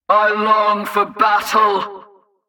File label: Female Patrol Line; NPC; Heist Cultist Captain Patrol